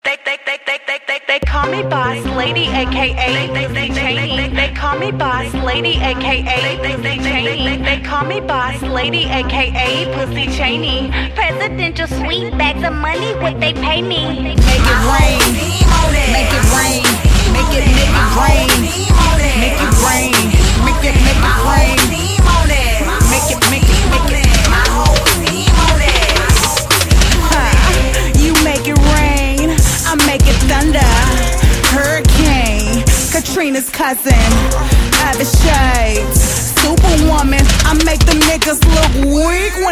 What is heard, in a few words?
Female